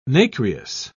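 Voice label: "nacreous", British English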